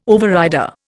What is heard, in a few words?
"overrider", Indian